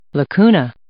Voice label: "lacuna", English